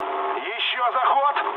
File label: bomb failure, mood low, speech ru; War Thunder